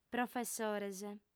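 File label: "professores", Sardinian, female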